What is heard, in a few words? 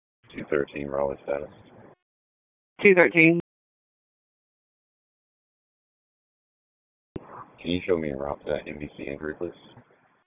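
Police District Dispatch; Raleigh; Northeast; wake; p25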